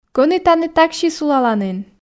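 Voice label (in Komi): Komi, кӧні тані такси сулаланін, female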